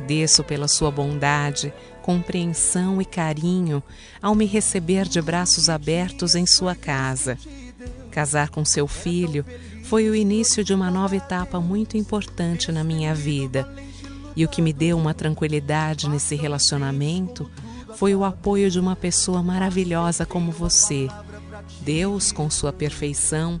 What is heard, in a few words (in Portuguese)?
sogra fem, Dia das Mães para Sogra – Voz Feminina